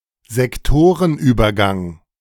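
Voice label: "Sektorenübergang", German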